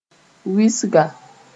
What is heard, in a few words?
"wɩsga", Mooré